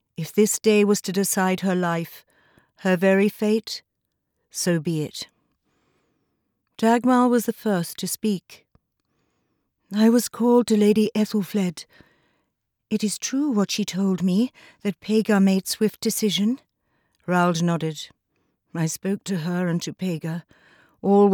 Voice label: Audiobook Fiction F